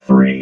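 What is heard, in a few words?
"three", robot voice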